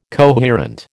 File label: "coherent", American